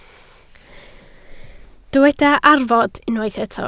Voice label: "arfod", Welsh

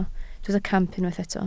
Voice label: "camp", Welsh

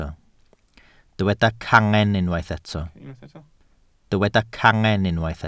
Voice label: "cangen", Welsh

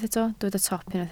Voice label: "top", Welsh

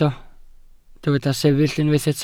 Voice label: "sefyll", welsh

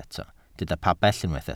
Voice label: "pabell", Welsh

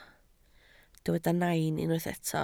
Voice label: "nain", Welsh

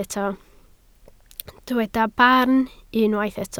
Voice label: "barn", Welsh

